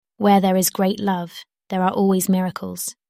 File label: voice calm; Alice